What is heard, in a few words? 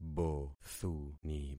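"bothuni", concatenated syllables; Affixing experiment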